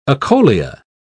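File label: "acholia", British English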